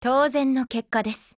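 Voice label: WIN, Japanese; RO635Mod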